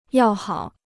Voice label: "要好", Chinese

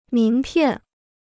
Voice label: "名片", Chinese

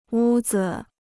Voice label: "屋子", Chinese

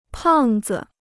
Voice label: "胖子", Chinese

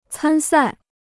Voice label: "参赛", Chinese

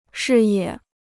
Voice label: "视野", Chinese

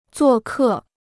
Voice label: "作客", Chinese

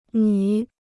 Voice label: "女", Chinese

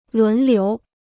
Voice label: "轮流", Chinese

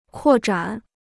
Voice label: "扩展", Chinese

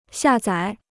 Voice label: "下载", Chinese

